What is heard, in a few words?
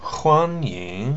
"hua1nyi2ng", pinyin